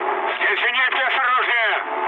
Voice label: damaged aa, mood med, speech ru; War Thunder

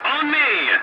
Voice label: voice message follow me, english; War Thunder

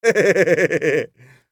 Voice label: scav mutter, voice; Escape from Tarkov; scav4

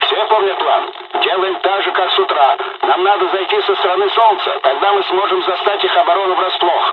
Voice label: dialog, dialogs russian; us midway; War Thunder

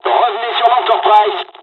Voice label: evac, dialogs french; midway; War Thunder

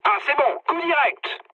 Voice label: bomb success mood med, speech fr; War Thunder

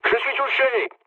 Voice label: aircraft destroyed mood low, speech fr

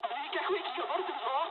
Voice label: damaged water mood med, speech jp; War Thunder

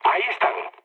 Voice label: enemy detected mood low; War Thunder

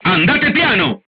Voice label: voice message drive slowly, crew tanks italian

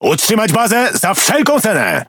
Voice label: Speech Quick Commands Ally Base, PL; World Of Warships

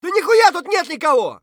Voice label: clear, voice; Escape from Tarkov; scav3

